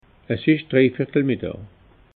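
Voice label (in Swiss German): "dreiviertel", Alsatian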